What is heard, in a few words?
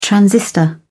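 "transistor", British English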